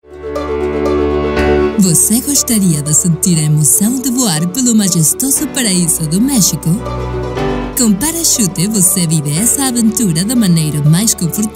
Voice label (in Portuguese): Portugues